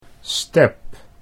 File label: "step", Dutch